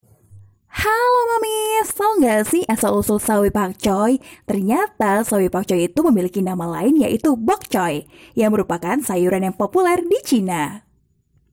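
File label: Indonesian casual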